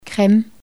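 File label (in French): "creme" French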